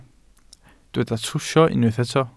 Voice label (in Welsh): "trwsio", Welsh